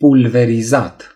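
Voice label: "spray", Romanian; gcompris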